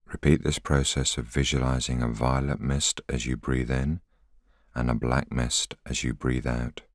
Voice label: voiceover